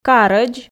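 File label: "карӧдз", Komi, female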